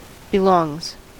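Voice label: "belongs", US English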